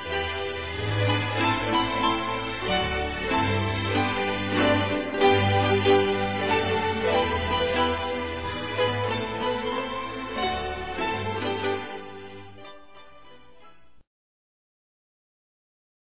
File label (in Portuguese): NATAL CLIENTE F; Telemensagens; Natal e Ano novo; fem